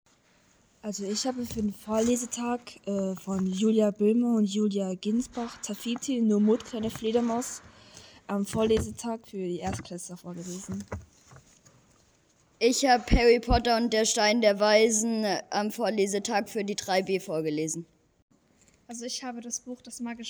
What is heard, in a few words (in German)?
Grundschule vorgelesene Bücher